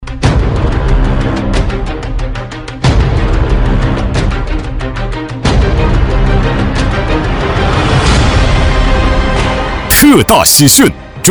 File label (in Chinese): 男 促销